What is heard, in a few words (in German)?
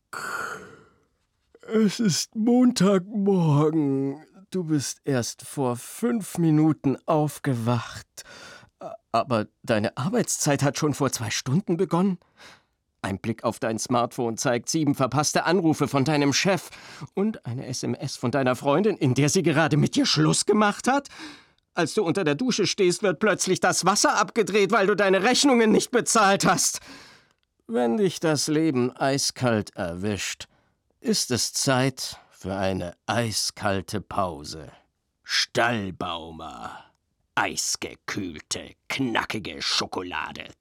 Radiospot; Stallbaumer